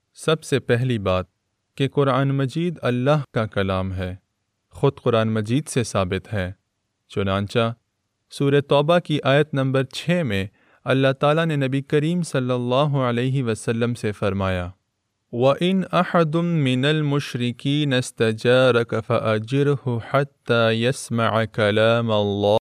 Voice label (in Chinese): 乌尔都语男声